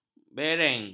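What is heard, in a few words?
"bereng", Malay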